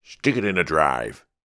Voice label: human 60 year old male american says stick it in the drive